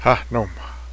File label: bee, cheyenne; háhnoma